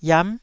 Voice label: "iam9", Taiwanese syllable

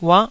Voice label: "uah9", taiwanese

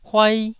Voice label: "huai1", Taiwanese syllable

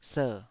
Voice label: "ser3", taiwanese

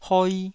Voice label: "hoi2", taiwanese

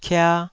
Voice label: "kia2", taiwanese syllables

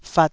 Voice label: "fat2", taiwanese syllable

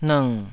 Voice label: "nng3", Taiwanese syllable

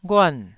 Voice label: "ghuan4", Taiwanese syllable

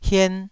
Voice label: "hien2", Taiwanese syllable

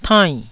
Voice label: "tainn4", taiwanese Syllables

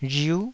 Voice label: "rhiu9", taiwanese

